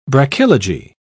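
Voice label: "brachylogy", English, British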